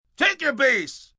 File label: Take Your Base, umpire, male, baseball; Talking